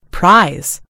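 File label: "prize", American English; gcompris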